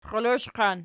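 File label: "xxioloshqan" nominative, Archi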